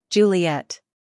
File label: "Juliet" us